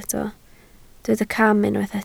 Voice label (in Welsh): "cam", Welsh